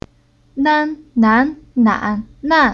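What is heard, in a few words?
"Nan", Chinese syllable; Уроки фонетического блока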